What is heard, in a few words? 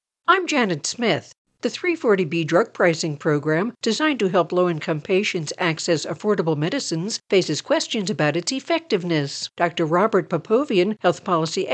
Radio